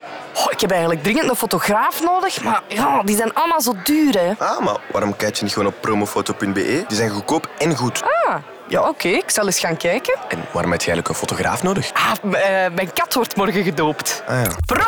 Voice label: radiospot; studio Brussel